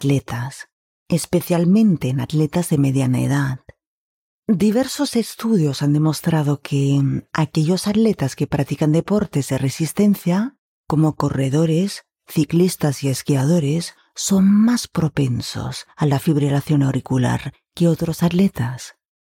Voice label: Medical English Spanish Narration Atrial Fibrillation